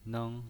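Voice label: "neng", pinyin voice, Mandarin Chinese, first tone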